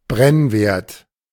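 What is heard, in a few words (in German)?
"Brennwert", German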